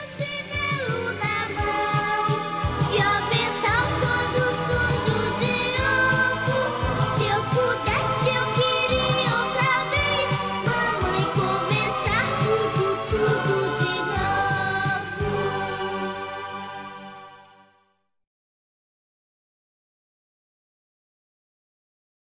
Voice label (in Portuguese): Dia Das Mães Para Mãe Masculino, Telemensagens; Telemensagens 2017